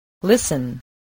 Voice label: "listen", spelling